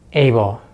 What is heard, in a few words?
"able", English; easypronunciation